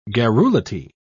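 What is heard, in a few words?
"garrulity", British English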